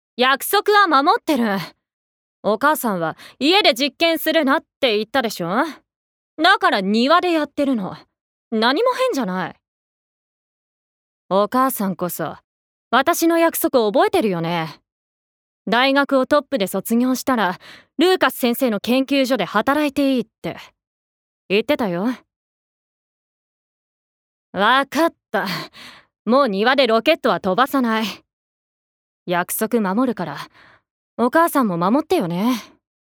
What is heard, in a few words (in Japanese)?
外画